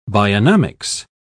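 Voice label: "bionomics", British English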